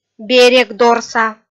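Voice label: "берегдорса", Komi-Zyrian, female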